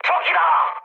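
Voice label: Korean enemy detected mood high; War Thunder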